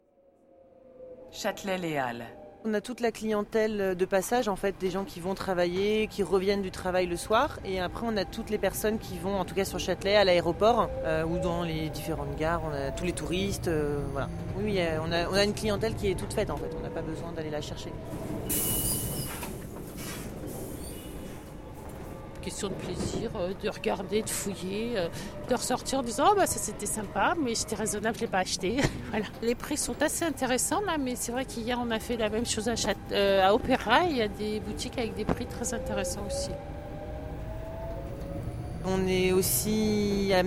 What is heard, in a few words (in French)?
sujet radio